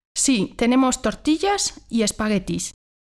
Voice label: conversation